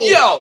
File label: "yo", Voice